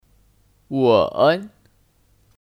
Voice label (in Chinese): "吻", Wěn; (Kata kerja): Mencium